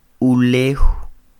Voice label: "ulew", Quiché; tierra; land; INALI; UTexas; CHQETA

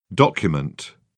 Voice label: "document", British; ɑː - ɒ; English

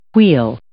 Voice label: "weal", English

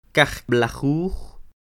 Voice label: "Kajlajuj", Quiché; catorce; fourteen; INALI; UTexas; Números

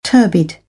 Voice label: "turbid", British English; ɝː - ɜː

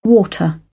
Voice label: "water", British English

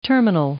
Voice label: "terminal", English

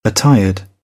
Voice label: "attired", British

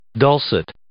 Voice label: "dulcet", English

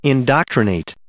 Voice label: "indoctrinate", English

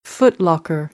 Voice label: "footlocker", American; ɑː - ɒ

